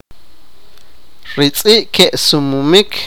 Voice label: sipakapense; the dogs are running

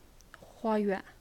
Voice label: "garden", naxi

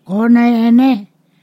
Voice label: "to whom", Ponosakan

